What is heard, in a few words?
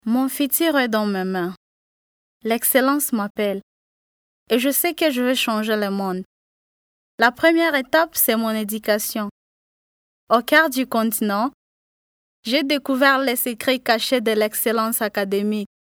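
African French female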